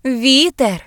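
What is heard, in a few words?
wind, Ukrainian; gcompris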